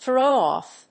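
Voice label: "throw-off", English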